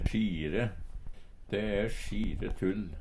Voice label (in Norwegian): "sjire", Numedalsmål; Uttrykk; De e sjire tull.; Adjektiv